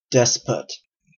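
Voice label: "despot", Canadian English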